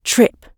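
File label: "trip" British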